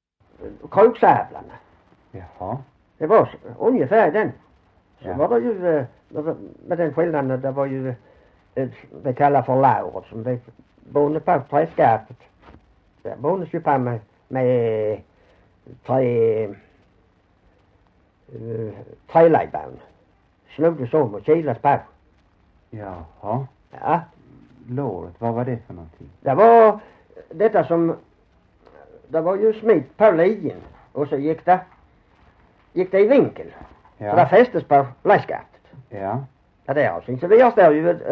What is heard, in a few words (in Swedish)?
Hörja SK, dialekter; Folkminnen; Svenska sagor